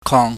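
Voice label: "kong", Cantonese, mid level tone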